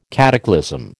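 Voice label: "cataclysm", American English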